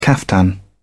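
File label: "caftan", English, British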